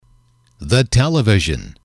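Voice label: "the television", English, male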